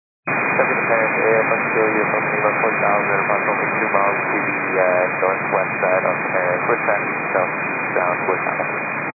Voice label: 122.925 MHz; scanner radio